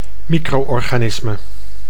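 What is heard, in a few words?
"microorganisme", Dutch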